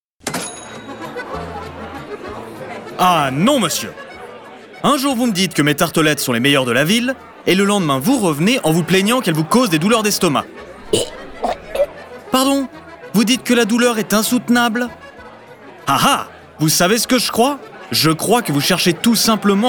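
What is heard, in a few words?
Character Natural (French)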